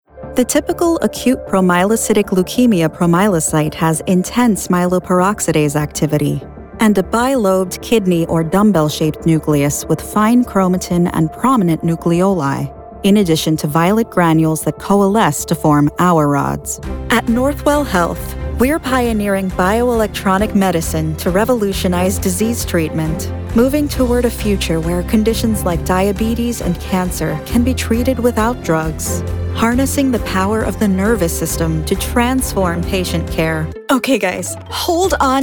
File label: medical narration; medical narration demo